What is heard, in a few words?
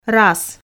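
"рас", Komi, female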